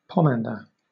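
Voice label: "pomander", British English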